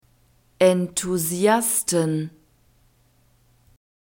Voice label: "enthusiasten", German